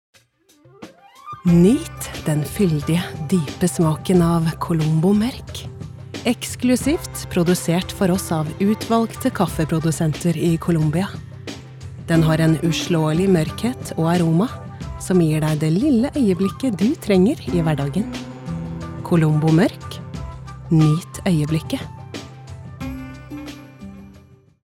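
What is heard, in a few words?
Norwegian soft sell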